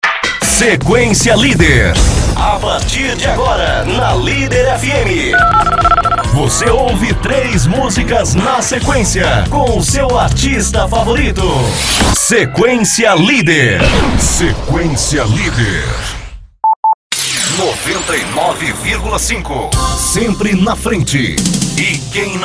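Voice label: Radio TV Imaging, Brazilian Portuguese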